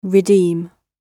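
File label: "redeem", British English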